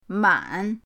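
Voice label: "man", Mandarin pinyin, third tone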